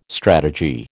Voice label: "strategy", American